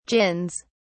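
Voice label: "gins", English, British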